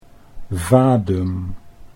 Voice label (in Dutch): "vadem", Dutch